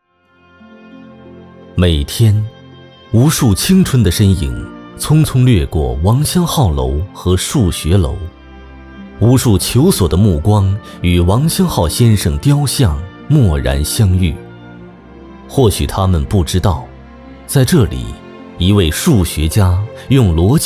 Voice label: 男 人物解说; 王湘浩

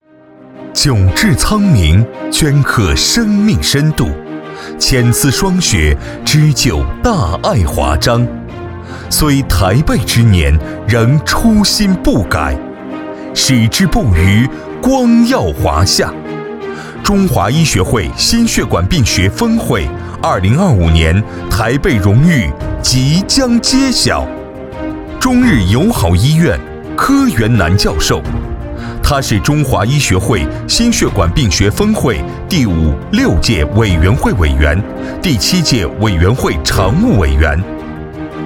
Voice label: 男 宣传片 开幕; 2025CSC鲐背荣誉

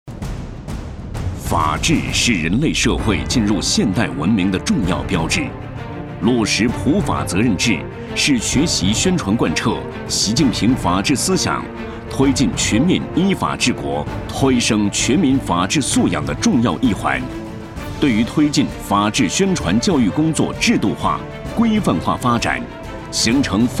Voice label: 男, 专题, 普法

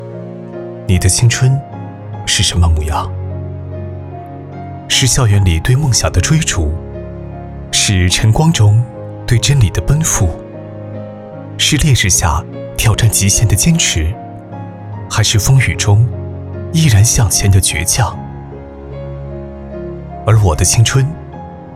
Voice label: 男 年轻感

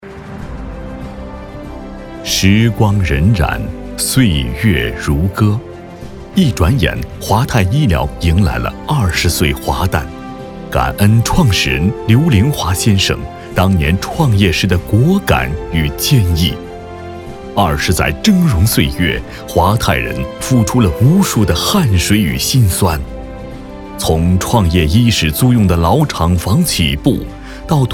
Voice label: 男 专题

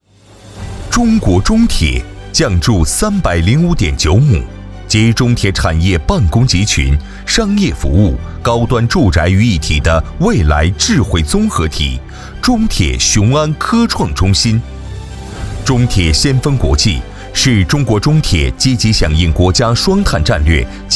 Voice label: 男 宣传片

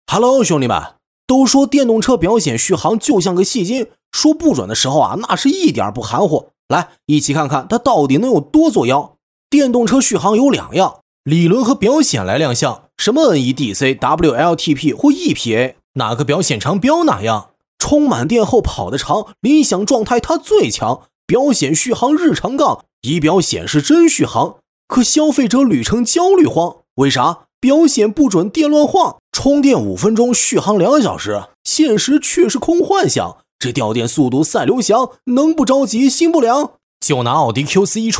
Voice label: 男, 旁白 干音

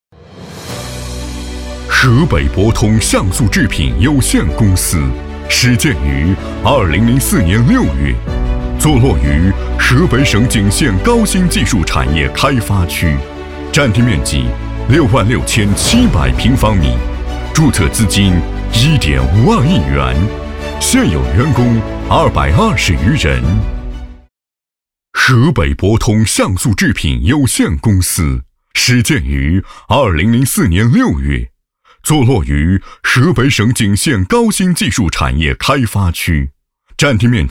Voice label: 男 企业宣传片; 河北博通橡塑制品

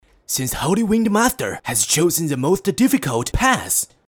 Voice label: 男, 英语角色

